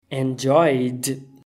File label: "enjoyed", English